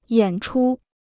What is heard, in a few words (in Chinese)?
"演出", Chinese